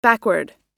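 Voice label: "backward", English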